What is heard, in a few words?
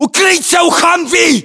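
order cover humvee, battlechatter, Russian; localized english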